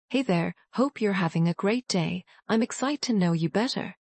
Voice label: Young middle class bit ex canadian UK